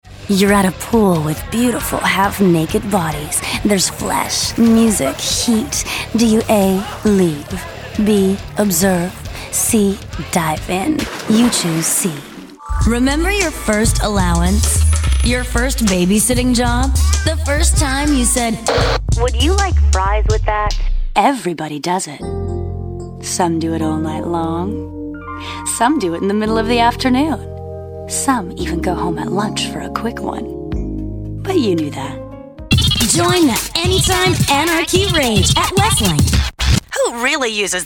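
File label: commercials voiceovers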